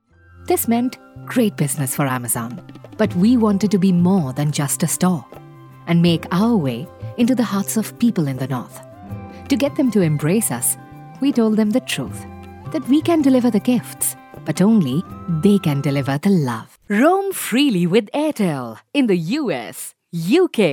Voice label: female indian voice over sales

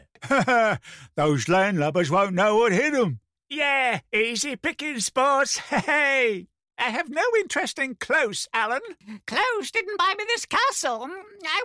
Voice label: male canadian voice over character

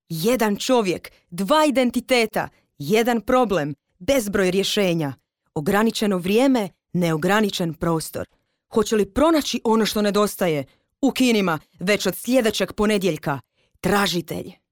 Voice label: female croatian voice over sales

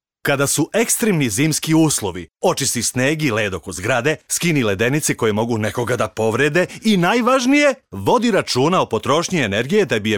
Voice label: male serbian voice over character

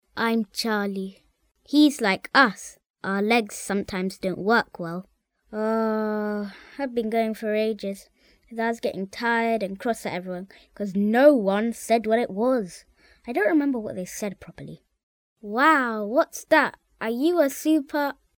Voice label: boy british voice over character; english british

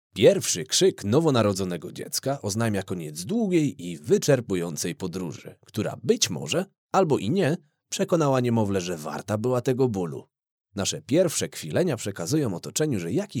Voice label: male polish voice over talent narrative

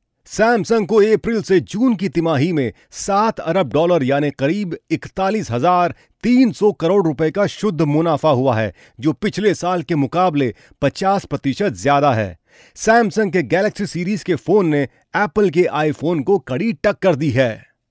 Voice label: male hindi voice over talent sales

